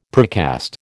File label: "precast", American